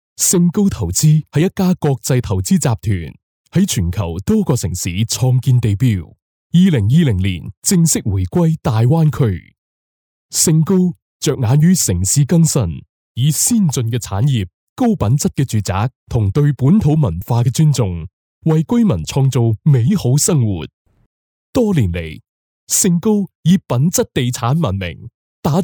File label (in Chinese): 男粤 粤语 企业宣传; neutral warm commercial cantonese narration; 盛高投资